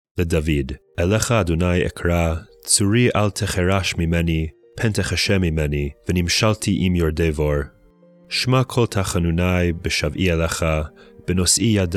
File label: poetry and prayer psalm hebrew